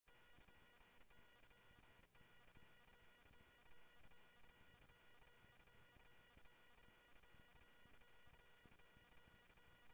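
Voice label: Phoenix police department, scanner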